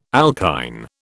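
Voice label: "alkyne", British English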